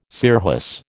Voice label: "scirrhus", American